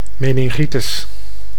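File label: "meningitis", Dutch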